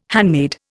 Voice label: "handmaid", Indian